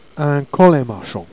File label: "colinmachon", jerriais